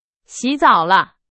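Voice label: Chinese, example sentence; hsk2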